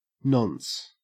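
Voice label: "nonce", Australian English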